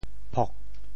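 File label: "phok4", Teochew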